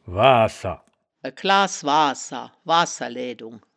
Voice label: "waahser", wittlich

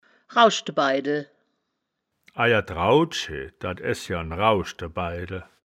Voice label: "rauschde baidel", wittlich

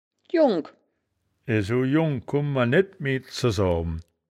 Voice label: "jungk", wittlich

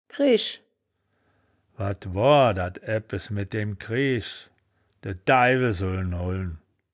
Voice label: "kreesch", wittlich

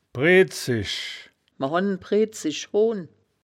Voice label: "bredzisch", woerterbuch, Wittlich

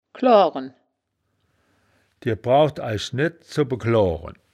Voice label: "kloaren", wittlich; das wittlicher woerterbuch; woerterbuch vertonung

